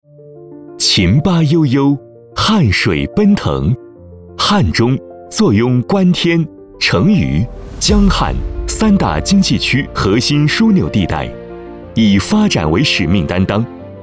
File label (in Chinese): 男 专题 年轻大气